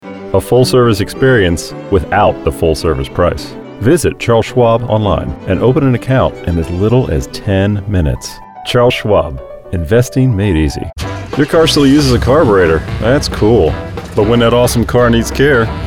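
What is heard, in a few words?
commercial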